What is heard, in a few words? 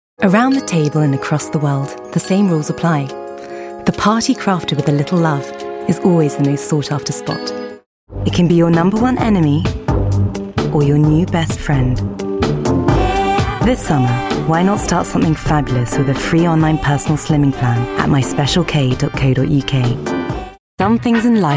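British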